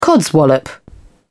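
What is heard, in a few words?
"codswallop", English, British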